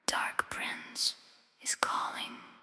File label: wisper; Dark Prince is calling